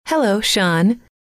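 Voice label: hello, female; trainer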